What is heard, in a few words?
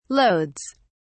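"loads", British English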